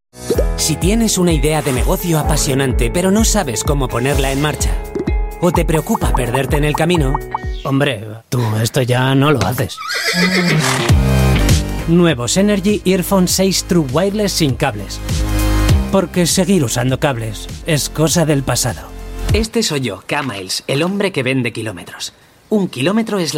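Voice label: M SP commercial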